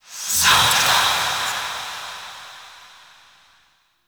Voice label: Whisper, ZATA; Kuva Lich; Requiem Mod

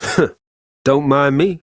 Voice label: Ambient Barks, en; Warframe; Mall Romance; Quincy

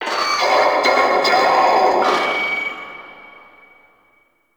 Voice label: Vocal Loudspeaker; Warframe; Duviri; Ambience; Town Escape; Dax

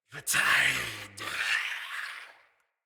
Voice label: Death Knight Male; Mendicant

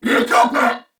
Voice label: Player Spotted, Corpus Venus Male; Dialog; Barks; Battle; Warframe; CorpusVenusMaleA

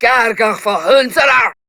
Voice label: Attack, Grineer Queens Guards, Battle Barks, en

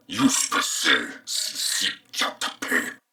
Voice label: Patrol Male, en; Dialog; Barks; Battle; Corpus Venus; Warframe; CorpusVenusMaleB

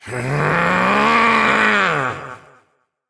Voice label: Frustration; Battle Barks; Evil Twin; Warframe; EntratiDoppelganger

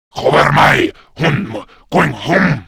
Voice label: Cover Me, Grineer Male; Warframe; Battle Barks

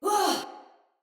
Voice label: Surprised, Female; Sinecure Soldier Female B

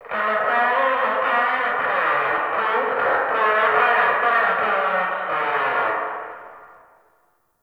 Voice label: Distant PA Voice; Warframe; Entrati; Dante Unbound Leverian

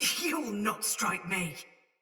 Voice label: Block Soldier Mace Agent Female; Battle; Sinecure Melee

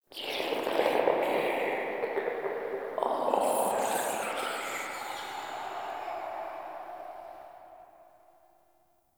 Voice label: Whispers; Inf Hub Lab; Many Lights; Warframe; Ambience; Entrati

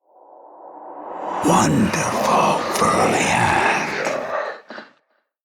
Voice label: Whispers; Warframe; Golem Quest; Golem Trial Monster; Jordas

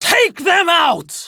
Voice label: Attack Prime Grineer Female; Tau; Battle Barks

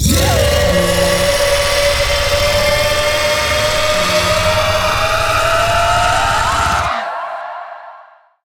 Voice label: Vocal Ability Warning Long; Warframe; Zariman; Void Angel

